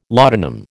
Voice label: "laudanum", American